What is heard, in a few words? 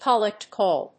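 "collect call", English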